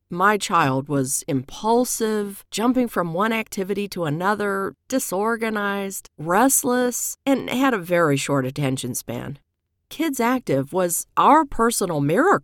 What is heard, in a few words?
Testimonial; ADD Kids Program